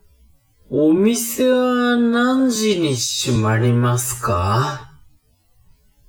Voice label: man